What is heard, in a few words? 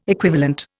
"equivalent", Indian